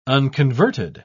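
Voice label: "unconverted", British English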